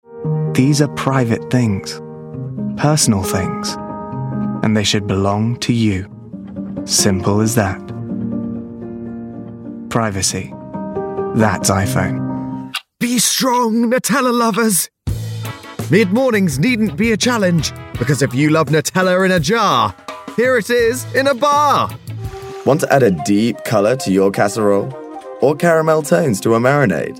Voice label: commercial